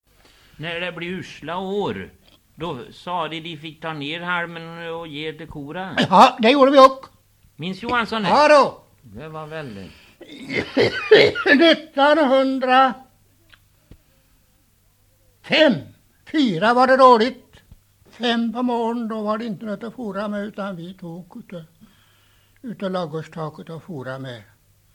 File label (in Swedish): St Mellby, dialekter